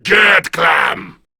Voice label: Attack, Male

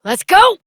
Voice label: Horse Sprint Adult; Duviri

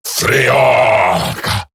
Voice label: Ability Short Cast Eximus Grineer Male

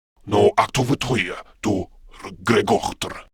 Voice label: Patrol, Male; Warframe; Grineer Male; Battle Barks

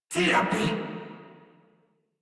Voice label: Surprised Crewmen; Warframe; Corpus Crewmen; Crewman D

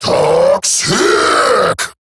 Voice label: Ability Short Cast, Grineer Male, en; Dialog; Barks; Battle; Eximus

